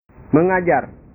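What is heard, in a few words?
"mengajar", Indonesian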